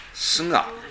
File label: "suga", minamiyamagata